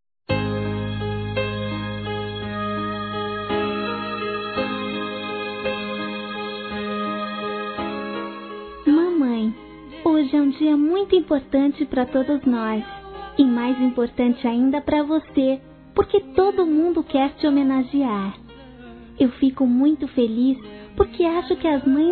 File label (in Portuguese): MÃE CRIANÇA F; Telemensagens 2017